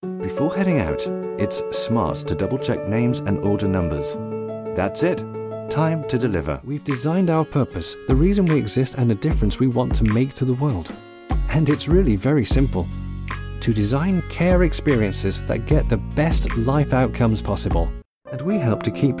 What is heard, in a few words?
Voice Over Narration